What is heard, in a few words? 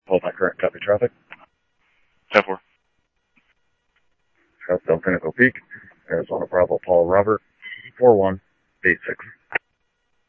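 police department, scanner audio trunk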